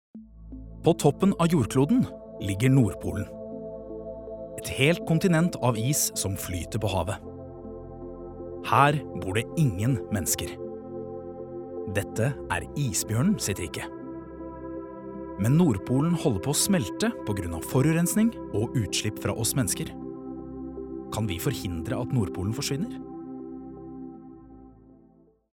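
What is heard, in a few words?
Norwegian documentary, norwegian male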